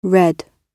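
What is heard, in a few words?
"read", British English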